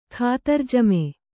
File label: "ಖಾತಿರಜಮೆ", kannada